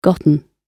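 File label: "gotten", British English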